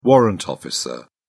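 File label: "warrant officer", British English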